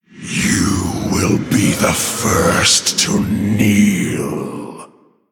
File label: Male Orc Threaten; Garrosh Deathknight